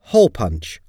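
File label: "hole punch", English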